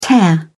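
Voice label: "tear off", British English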